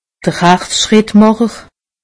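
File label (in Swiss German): "harzschrittmacher", Alsatian; medecine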